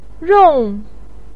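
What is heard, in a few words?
"rong", Mandarin Chinese, fourth tone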